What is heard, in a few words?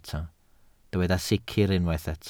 "sicr", Welsh